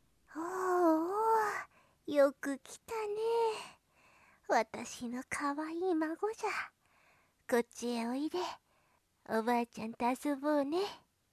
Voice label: grandma; voice